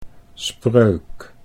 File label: "spreuk", Dutch